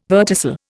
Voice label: "verticil", Indian